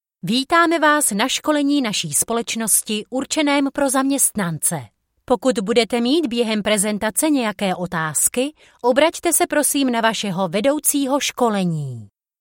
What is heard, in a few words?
Czech F Narration; voice over